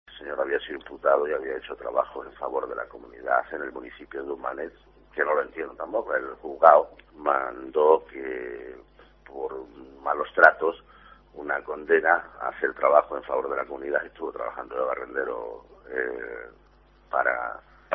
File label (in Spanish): noticias